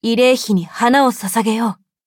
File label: levelup ja; cookie run